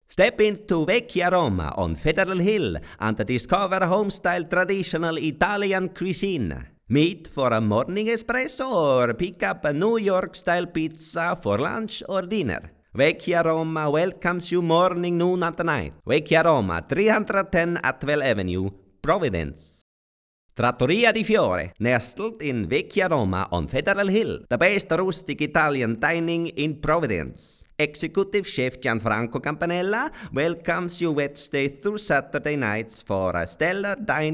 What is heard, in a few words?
italoenglish